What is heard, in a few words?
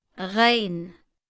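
"ghain" Arabic, female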